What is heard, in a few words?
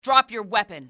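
drop your weapon, enemy, english, female; XBOX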